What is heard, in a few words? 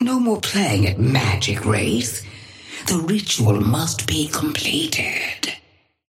female ally start; Patron; wraith